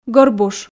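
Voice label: "гӧрбуш", Komi, female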